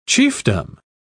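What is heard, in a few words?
"chiefdom", British English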